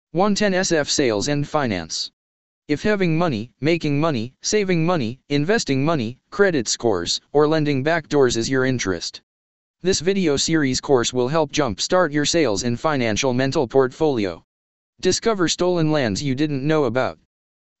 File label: text 2 voice